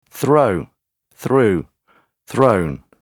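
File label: "throw", English; dob, hajít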